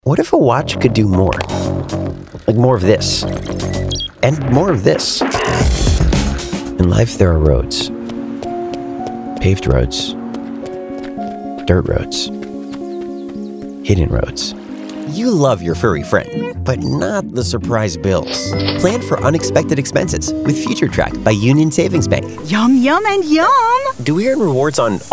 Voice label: english american commercial, Educated; american voice actors